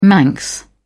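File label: "manx", English, British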